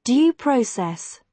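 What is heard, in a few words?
"due process", British English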